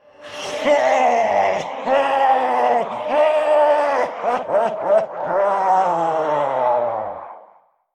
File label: Androgynous Monster Attack; Hearthstone; датамайн обновления 5.0